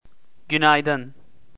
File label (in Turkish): "gunaydin", turkish, greetings